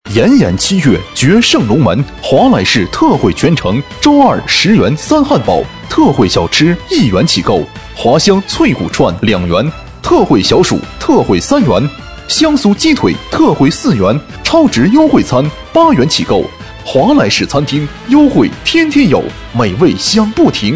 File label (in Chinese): 男声; 华莱士